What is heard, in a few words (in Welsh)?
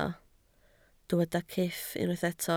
"cyff", Welsh